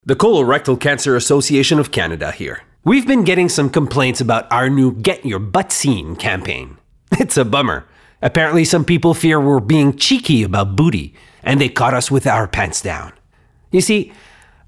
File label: anglo